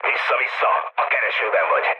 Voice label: searchlite, mood low, speech hun; War Thunder